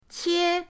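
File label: "qie1", Mandarin Chinese, pinyin; pinyindct-open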